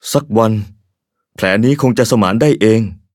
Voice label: level up, th; Cookie Run